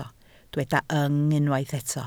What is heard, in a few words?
"yng", Welsh